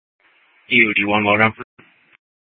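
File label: Mesa Police Department Superstition Dispatch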